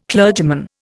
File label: "clergyman", Indian